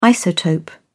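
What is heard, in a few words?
"isotope", British English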